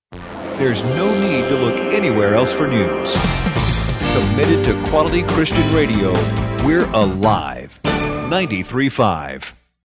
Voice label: News Exit Liner